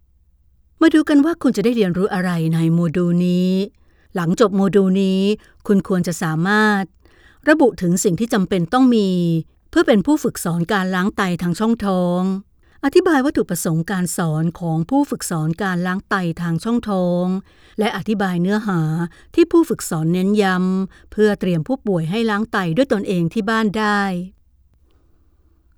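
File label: Thai, female, clean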